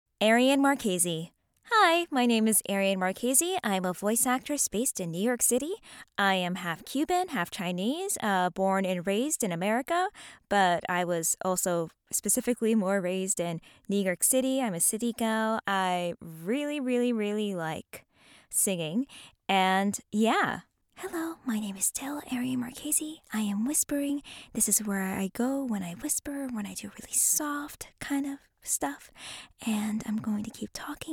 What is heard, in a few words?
Raw Booth